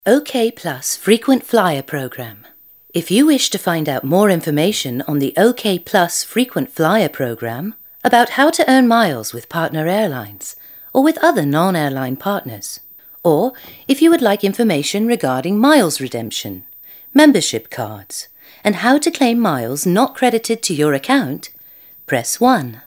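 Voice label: Female English ivr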